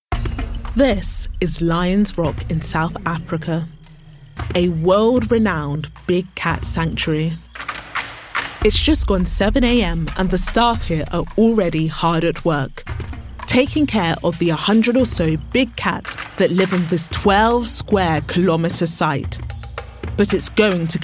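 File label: British English, Documentary; GoLocalise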